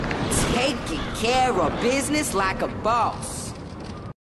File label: glitch quote ringtone